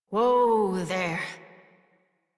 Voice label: Horse Stop Walk Adult; Warframe; Duviri